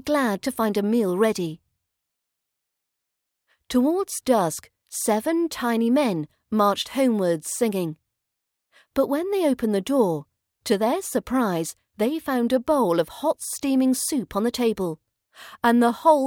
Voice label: snow white fairy tale british accent pronunciation training